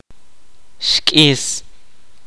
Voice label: kaqchikel; to become scarce

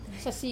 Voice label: "sasi", defecate, wamesa

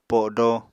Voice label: "podo", Apatani

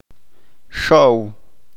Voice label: "Susto", Chalchiteko

